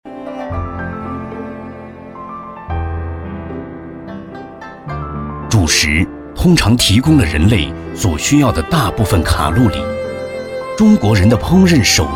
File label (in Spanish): Locutor varón chino